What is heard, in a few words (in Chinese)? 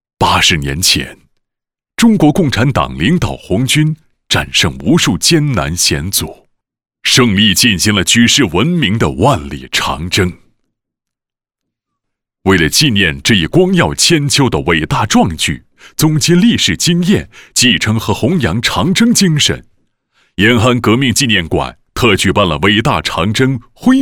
延安革命纪念馆解说词